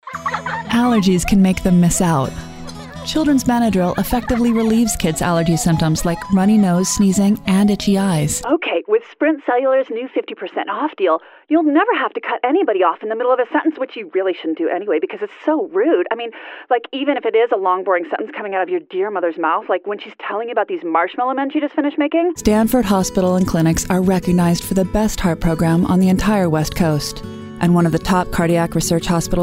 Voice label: Commercial